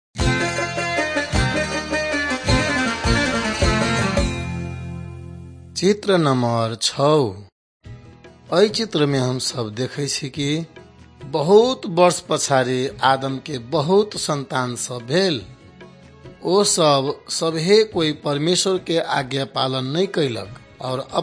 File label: maithili thetiya